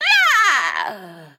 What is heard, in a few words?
Female Harpy Death